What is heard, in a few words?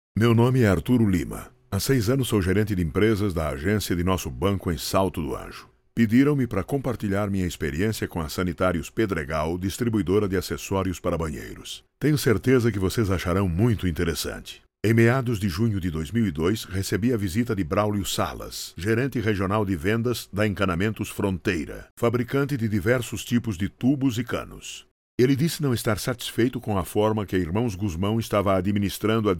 voice over brazilian portuguese voice over talent narrator; DEMO PEDREGAL Florida USA